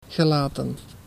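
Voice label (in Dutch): "gelaten", Dutch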